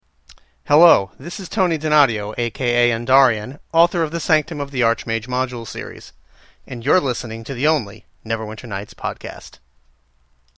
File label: Podcast Listener Greeting